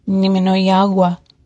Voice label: fine, ojibwe; Nminoyaa gwa; (I'm fine)